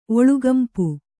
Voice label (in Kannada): "ಒಳುಗಂಪು", Kannada